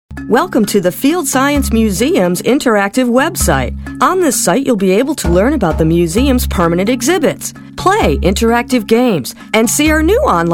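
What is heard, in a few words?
Narration without Slate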